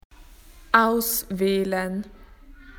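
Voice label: "to choose", Australian English; PictureDictionary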